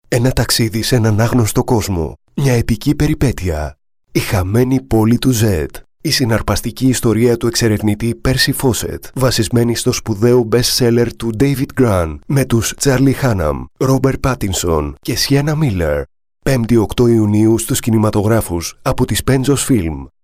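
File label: Greek, male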